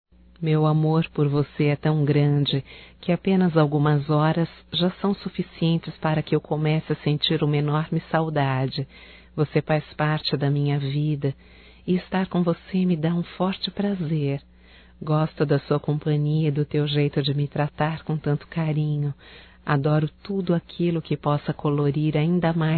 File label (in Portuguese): SAUDADES NAMORADOS, Telemensagens, Só Voz, fem